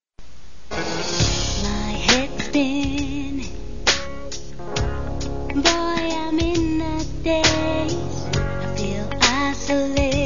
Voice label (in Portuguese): Telemensagem Erótica